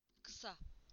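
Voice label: "short", Turkish; PictureDictionary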